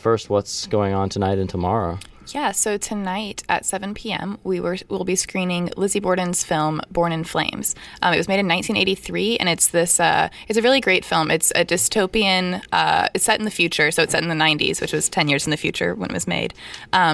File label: patois